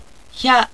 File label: "hya", japanese